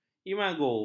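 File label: "imago", Malay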